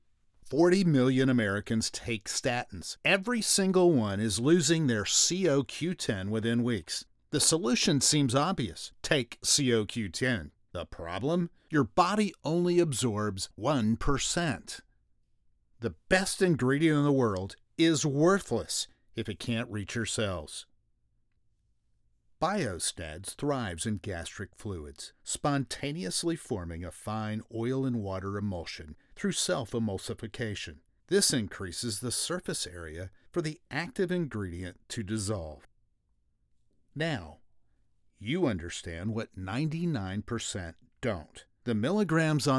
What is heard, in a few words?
Compelling Story Telling